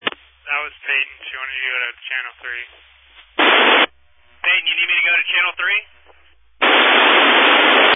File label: Family Radio Service (FRS)